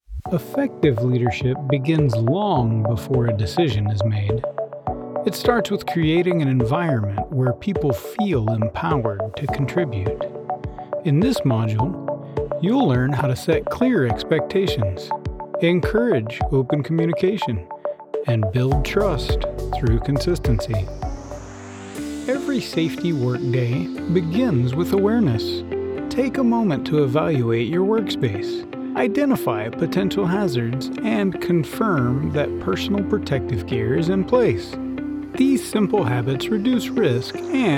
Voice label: eLearning & Corporate Narration